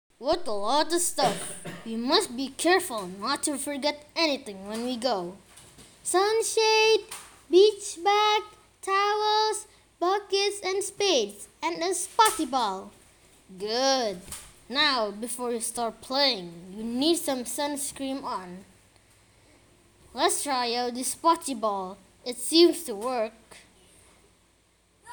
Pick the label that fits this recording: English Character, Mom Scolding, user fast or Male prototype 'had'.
English Character